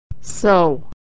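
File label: "sow", American English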